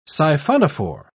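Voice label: "siphonophore", British English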